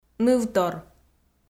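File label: "нывтор", Komi, female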